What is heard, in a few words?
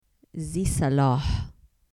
zi salah persian